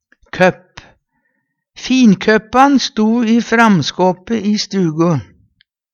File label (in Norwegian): "køpp", Numedalsmål; Hushald, mat, drikke